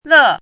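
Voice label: "lè", Chinese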